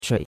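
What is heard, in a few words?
"chui3", Chinese; Cours-de-Chinois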